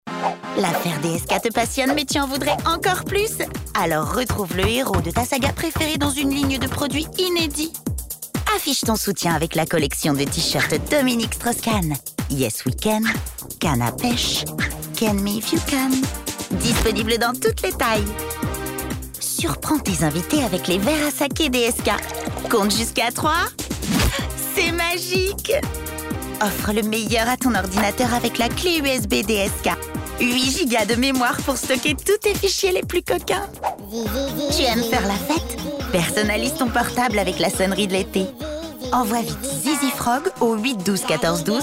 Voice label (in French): Parodie; DSK Canal+